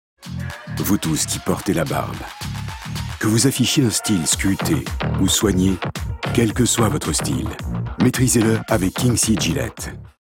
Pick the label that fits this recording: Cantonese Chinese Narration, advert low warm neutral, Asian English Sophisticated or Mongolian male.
advert low warm neutral